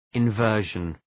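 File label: "inversion", English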